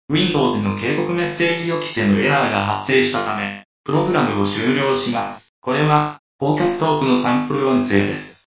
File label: normal rich robot alert